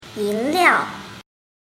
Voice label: "yinliao", mandarin